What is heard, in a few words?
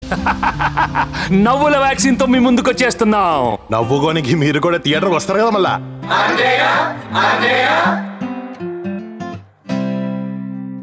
Dialogue Ringtone; F3; Venkatesh; Varun Tej